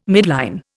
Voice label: "midline", Indian